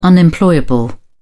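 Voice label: "unemployable", British English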